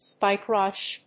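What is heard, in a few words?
"spikerush", English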